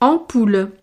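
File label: "ampoule", French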